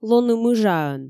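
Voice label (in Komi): лоны мыжаӧн, Komi, female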